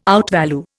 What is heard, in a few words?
outvalue, Indian